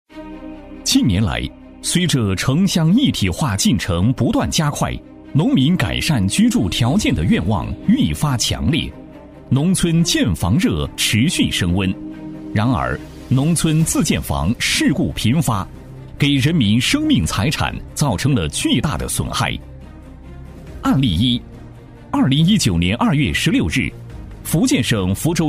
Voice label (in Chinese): 男 安全事故 紧张严肃; 正气明亮; 沉稳大气; 巴南区农村自建房质量安全事故